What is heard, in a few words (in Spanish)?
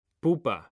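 "pupa" Spanish, male; mekanta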